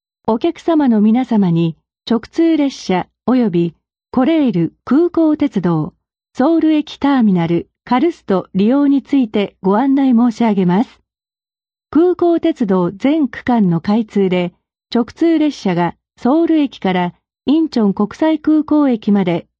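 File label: Japanese female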